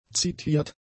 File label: "zitiert", German; adjectives